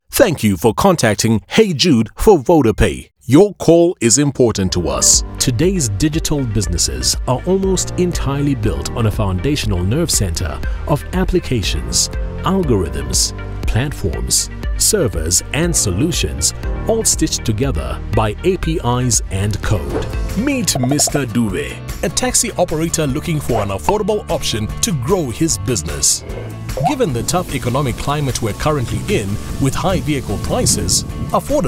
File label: Corporate Mashup; OSM Talent